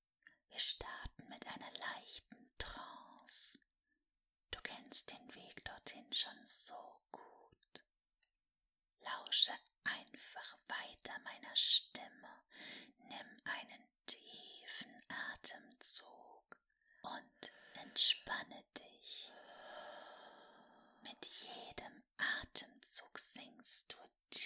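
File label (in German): Tiefsanft, erotische Hypnose ASMR; Ohrgasmus; Hypnotica; Folge meiner Flüsterstimme in die tiefsanfte Welt der erotischen Hypnose.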